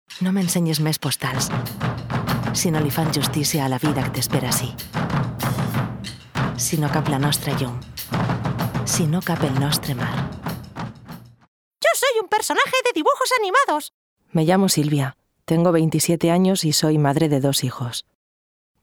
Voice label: female spanish castilian compilation